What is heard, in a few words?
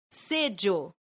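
"seĝo", esperanto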